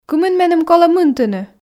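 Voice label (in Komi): кымын меным колӧ мынтыны, Komi, female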